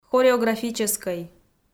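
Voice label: "хореографическӧй", Komi, female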